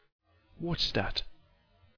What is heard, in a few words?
Oldguy line; Fat Penguin